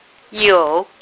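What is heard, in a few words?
"you3", Mandarin Chinese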